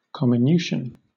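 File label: "comminution", British English; pronunciations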